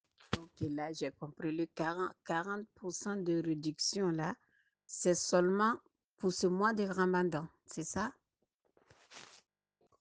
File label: voice message